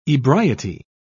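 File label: "ebriety", British English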